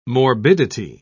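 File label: "morbidity", British English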